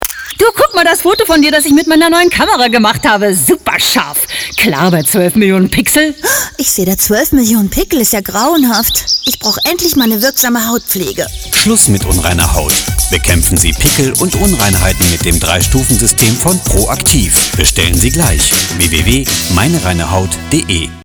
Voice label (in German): Beauty Radiowerbung